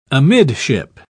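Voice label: "amidship", English, British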